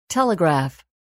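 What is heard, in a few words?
"telegraph", English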